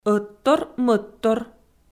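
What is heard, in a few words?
"ӧттор-мӧдтор" Komi, female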